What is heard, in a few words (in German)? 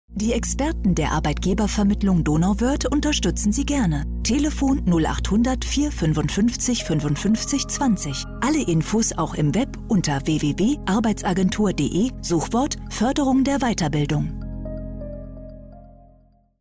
spot sachl